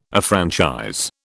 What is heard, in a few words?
"affranchise", British